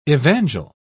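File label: "evangel", British English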